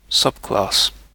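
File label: "subclass", British English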